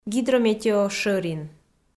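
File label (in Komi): "гидрометеошӧрин", Komi, female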